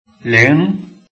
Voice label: "ling2", Chinese

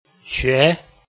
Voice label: "xue2", Chinese

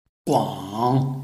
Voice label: "guang3", Chinese

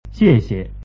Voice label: "xiexie", Chinese

